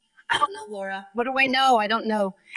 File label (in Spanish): Voice Acting es